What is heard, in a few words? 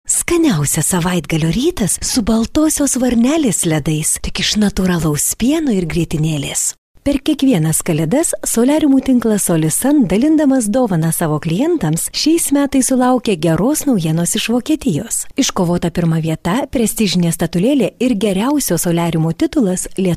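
Lithuanian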